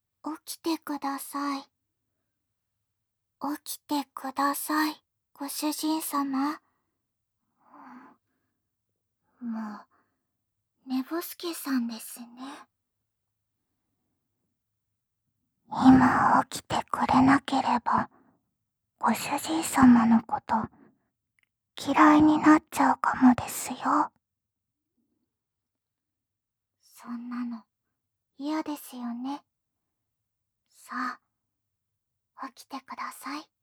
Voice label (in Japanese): 急かしボイス